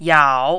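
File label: "yao3", mandarin, dipping tone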